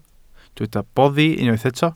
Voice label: "boddi", Welsh